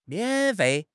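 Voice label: "mian3 fei4", Mandarin Chinese; HSK; HSK4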